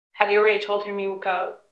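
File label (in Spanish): Voice Acting es F, Spanish, female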